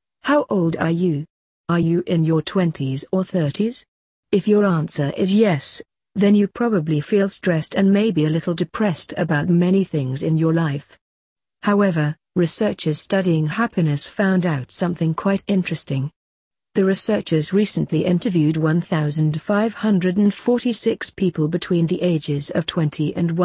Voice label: uk older happier

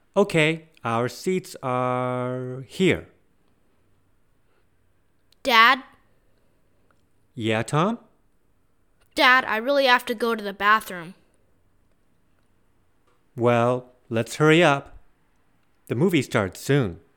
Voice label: movies dialog

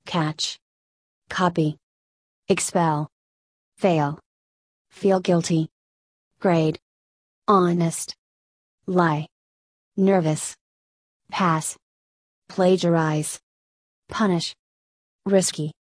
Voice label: usa; cheating

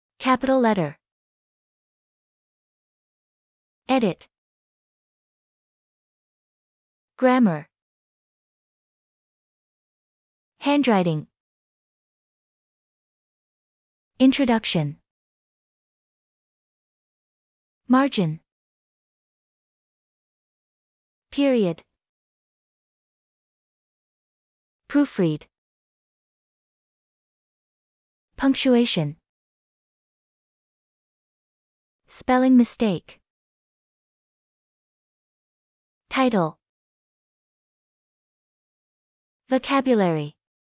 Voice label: usa

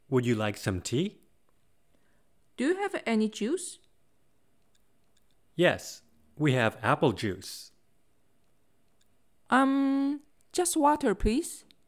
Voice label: basic english dialogs air travel

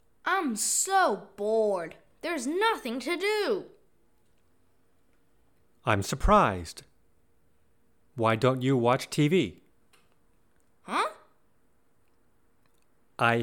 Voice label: emotions dialog

